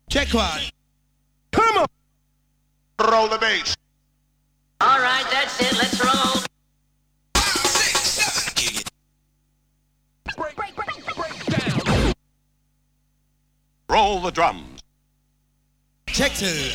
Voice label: Vocal Drops; Kniteforce; 1000 Samples Volume 1